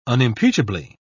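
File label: "unimpeachably", British English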